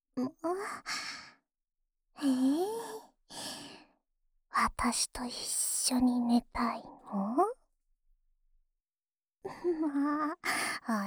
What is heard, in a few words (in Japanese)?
安眠用添い寝ボイス（右耳）, ASMR